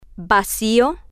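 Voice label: "vacio", Spanish, female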